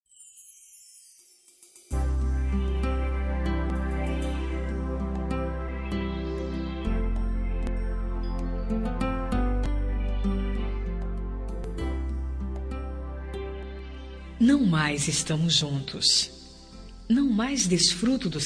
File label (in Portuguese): Romântica Com Saudades do Ex, F